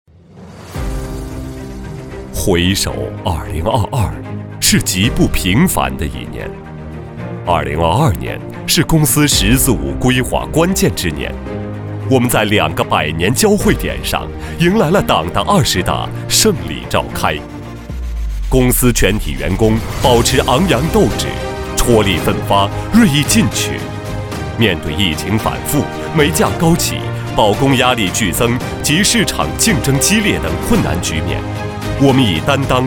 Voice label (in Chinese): 男 独白 苏南